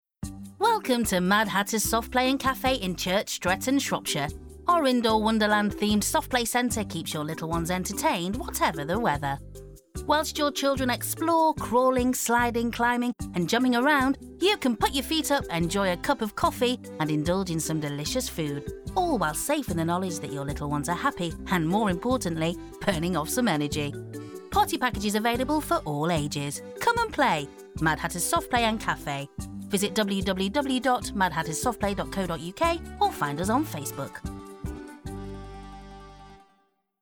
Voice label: Radio Ad